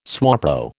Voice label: "SWAPO", American